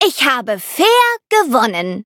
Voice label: victory, de; cookierun